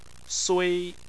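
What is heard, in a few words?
"sui", Chinese, first tone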